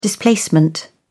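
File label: "displacement", British English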